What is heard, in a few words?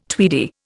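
"tweedy", Indian English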